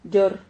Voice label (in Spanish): "llor", Silabas